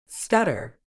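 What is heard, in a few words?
"stutter", British English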